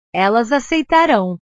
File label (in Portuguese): "elas aceitarao", portugues; verbos